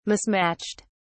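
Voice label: "mismatched", British English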